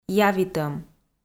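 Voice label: "явитӧм", Komi, female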